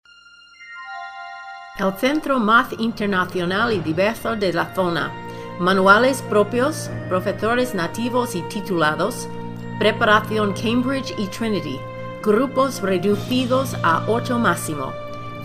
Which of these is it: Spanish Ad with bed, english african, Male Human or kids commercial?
Spanish Ad with bed